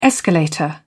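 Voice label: "escalator", British English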